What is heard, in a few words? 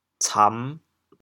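"cam5", Teochew, chaoyang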